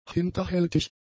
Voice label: "hinterhältig", German; adjectives

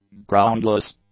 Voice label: "groundless", english; adjectives

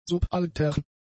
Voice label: "subaltern", German; adjectives

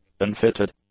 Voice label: "unfitted", english; adjectives

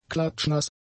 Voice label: "klatschnass", German; adjectives

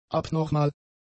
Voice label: "abnormal", German; adjectives

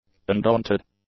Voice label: "undaunted", English; adjectives

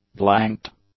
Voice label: "blanked", English; adjectives

